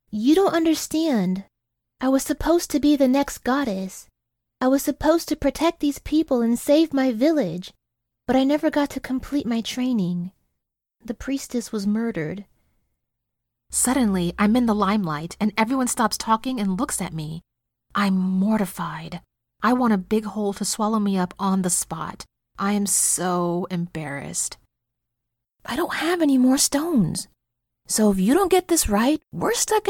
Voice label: Animation and Video Game